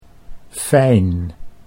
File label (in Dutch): "fijn", Dutch